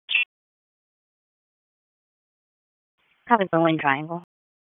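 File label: Police Southwest District Dispatch; Raleigh; wake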